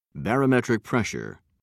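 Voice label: "barometric pressure", British English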